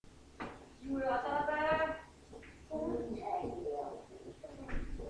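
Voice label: "singer", spoken word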